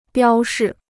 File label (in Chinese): "标示", Chinese